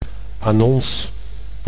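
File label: "annonce", Dutch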